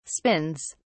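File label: "spins", British English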